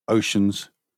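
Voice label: "oceans", British English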